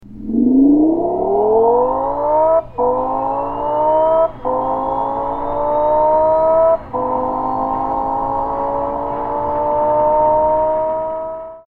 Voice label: Eng sound